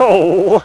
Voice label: fall, negative; Splashdown; XBOX